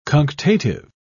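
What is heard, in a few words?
"cunctative", British English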